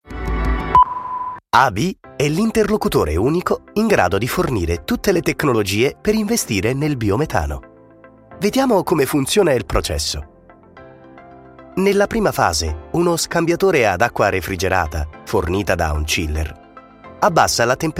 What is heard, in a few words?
E-learning